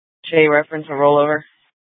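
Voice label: police dispatch, Scottsdale PD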